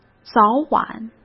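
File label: "早晚", Mandarin Chinese; chinese-flashcards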